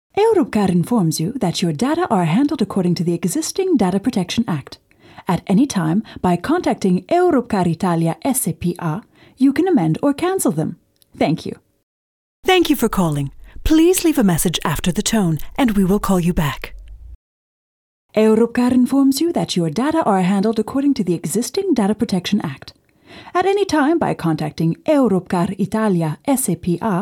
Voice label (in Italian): INGLESE AMERICAN NORMALE; FEMMINILE; Speaker Madrelingua